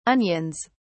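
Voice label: "onions", British English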